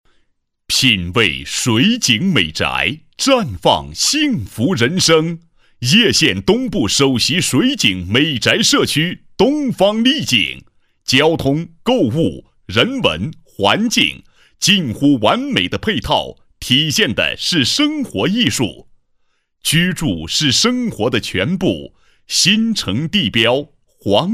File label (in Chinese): 房地产,干音,男,新闻广告专题颁奖; 东方丽景